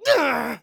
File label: Scout pain sharp, de